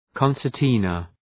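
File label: "concertina", English; {,kɒnsər’tınə}; Ουσιαστικό; φυσαρμόνικα; είδος ακορντεόν; κοντσερτίνα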